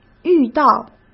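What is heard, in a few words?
"遇到", Mandarin Chinese; chinese flashcards; hsk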